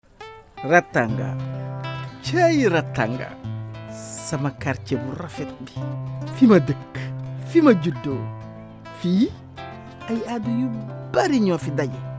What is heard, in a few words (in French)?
bande annonce en wolof feuilleton radio